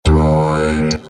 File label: droid; droid voice ringtone